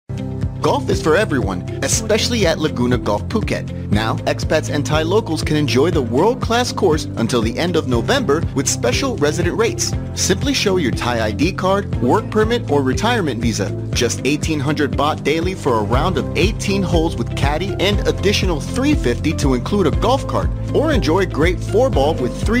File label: USA